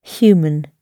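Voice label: "human", British English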